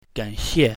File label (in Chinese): "gan3xie4", mandarin